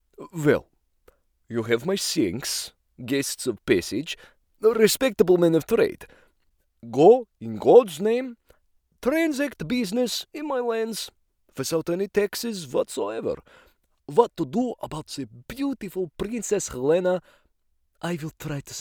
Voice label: Russian

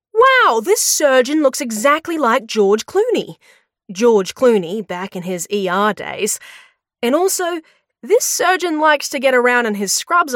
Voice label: horror comedy Australian

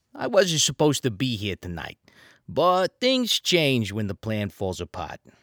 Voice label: New York Character

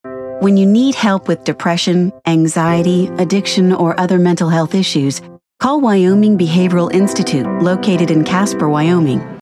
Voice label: Commercial Radio Behav Health

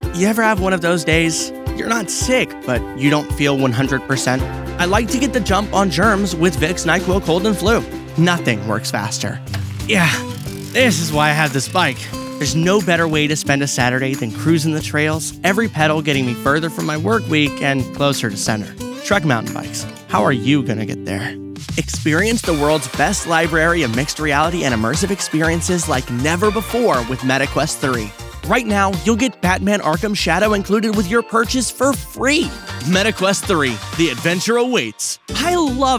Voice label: Commercial

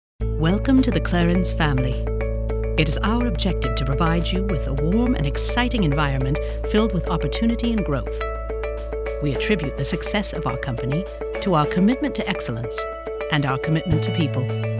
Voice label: Mid Atlantic

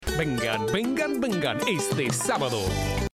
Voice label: Spanish

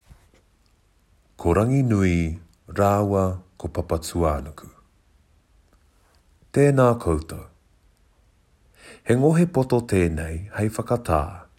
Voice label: Voice Actor; BigMouth Voices

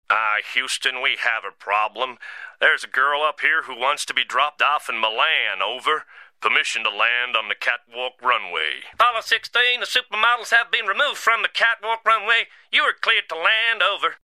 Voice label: American Accent

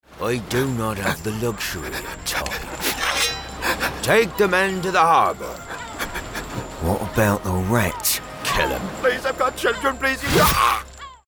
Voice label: West Country - Pirate Villain

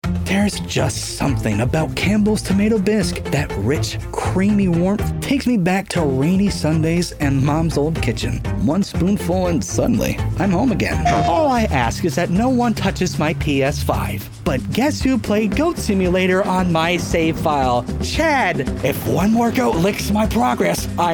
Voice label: Commercial

